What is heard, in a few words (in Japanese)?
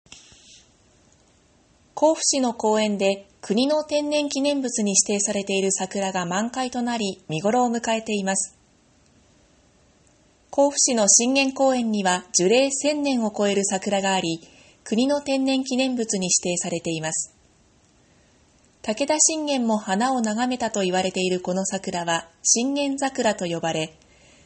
ニュース